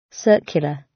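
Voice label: "circular", British English; Time, history; Thời gian, lịch sử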